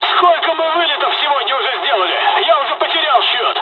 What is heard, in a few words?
dialog, dialogs russian; War Thunder